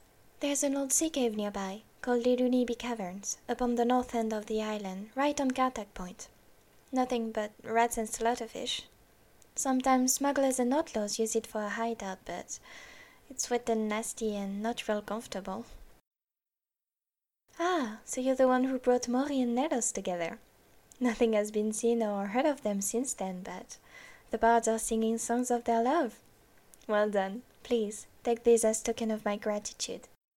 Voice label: breton, voice auditions; voice acting